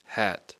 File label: "hat", English